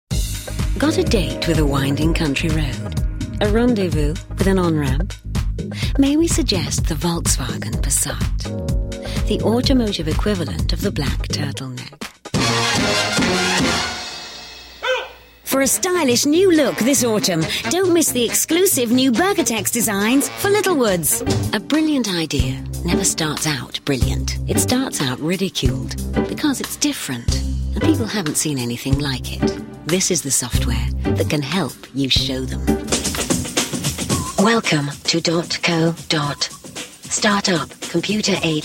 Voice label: British